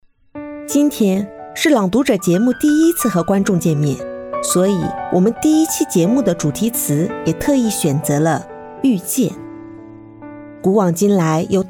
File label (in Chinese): 朗读者